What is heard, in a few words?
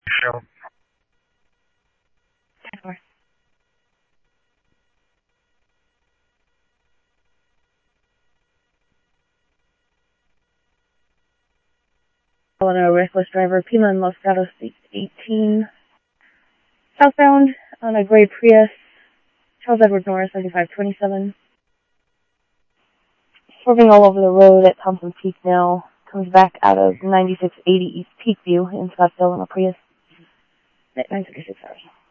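Simulcast police department, scanner audio trunk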